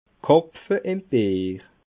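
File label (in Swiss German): "karpfe", Bas Rhin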